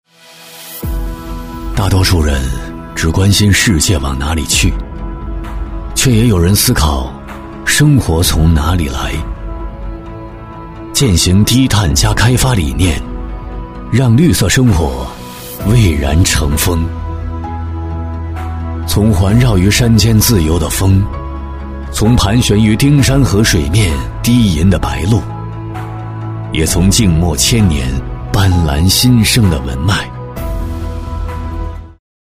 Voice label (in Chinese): 男319 旁白形象片,高端品质 沉稳大气; 国际低碳城文化会议中心区域